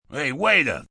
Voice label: Hey Waiter, male; Talking; VoiceBox

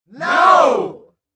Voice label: Crowd No, Small crowd, studio audience

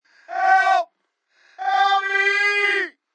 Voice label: Help Help Me, Yelling, Male

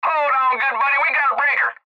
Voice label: Cb Trucker Radio; Radio Voice; Blastwave

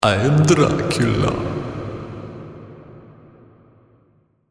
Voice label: I Am Dracula Spoken, Evil Voice Sound Effects; Horror

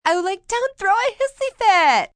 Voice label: Don't throw a hissyfit, female, valleygirl; Attitude; human voice speaking; Talking Sound Effects

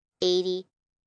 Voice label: Numbers "Eighty", 1 child, girl counting; Talking; Voice Box

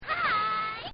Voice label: Crazy Lady Says Hi; Talking; Voice; Partners In Rhyme

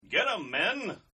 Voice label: Get Em, exclamation; Exclamation Sound Effects

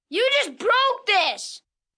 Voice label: You Just Broke This, 1 boy, 11 yrs; Talking Sound Effects; VoiceBox; Twistedtracks

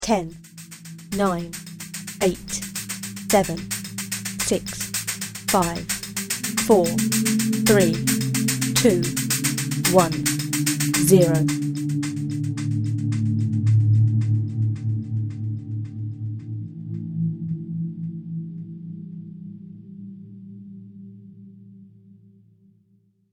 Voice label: Ambient Countdown Female Vocal; Sci Fi Voice Sound Effects; human voice sound effect

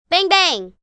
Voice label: Gun Bangbang, Imitation, 1 child, imitating a gun; Exclamation

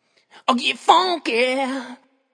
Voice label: Vocal, Funk vocal loop sample.

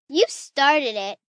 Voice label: You Started It, 1 girl, 10 yrs; Talking; Voice Box

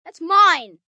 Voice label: Its Mine, 1 boy, 11 yrs; Talking Sound Effects

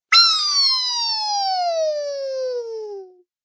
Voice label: "Weeee", Cartoon Game Voice

